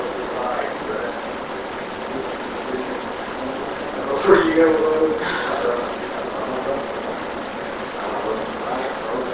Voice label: Unexplained Inaudible Amplified